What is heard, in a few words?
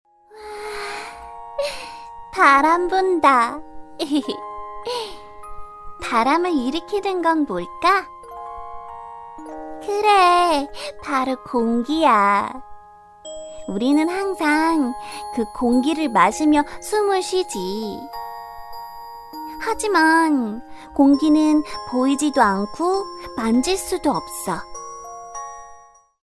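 Korean, female, acting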